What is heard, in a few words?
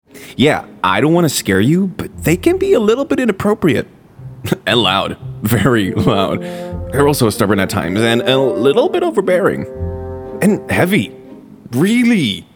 Animation Reel, Voice Demos